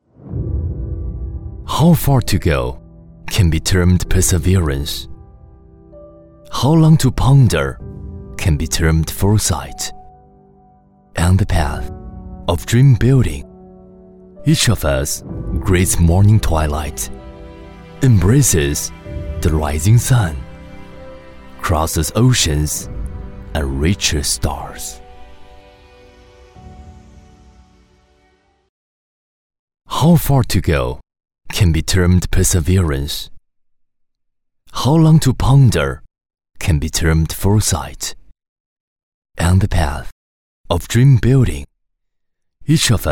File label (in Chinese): 男英 专题 企业 宣传片 浑厚; 加纳宣传片; 英语